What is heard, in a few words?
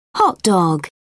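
"hotdog", British English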